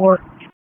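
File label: Police Special Operations Tactical; Raleigh; Spec Ops Tac 4; wake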